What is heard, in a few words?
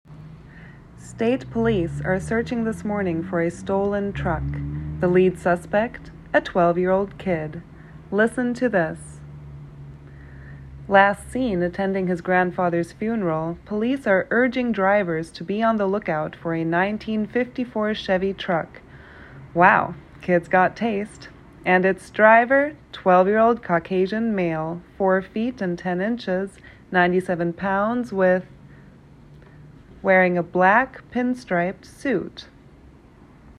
VO English News Reporter More Serious